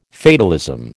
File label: "fatalism", American